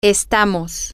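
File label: Spanish, we are, female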